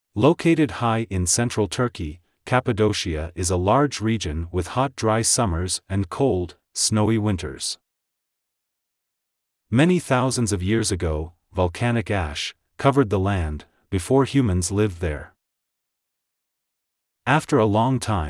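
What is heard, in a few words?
American; cave houses turkey reading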